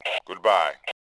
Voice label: bye, Male; voice; Tribes2

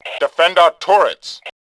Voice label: Male2; Tribes2

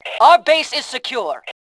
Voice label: secure, Fem; voice

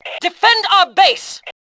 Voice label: base, Fem; Tribes2

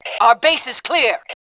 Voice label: base clear, voice Fem; Tribes2

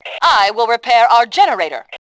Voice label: generator, Fem; Tribes2

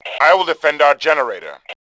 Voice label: generator, Male; voice; Tribes2

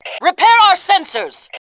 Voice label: sensors, Fem; Tribes2

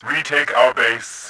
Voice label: base retake, voice, Bot; Tribes2

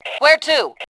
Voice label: "need where", Fem2; Tribes2

